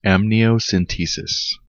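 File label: "amniocentesis", US English; pronunciations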